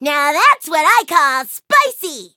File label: eng level up; chili pepper; Cookie Run